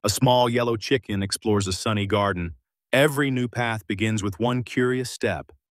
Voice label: narration